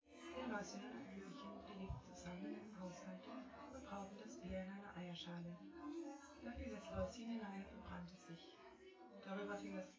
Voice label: female synthconv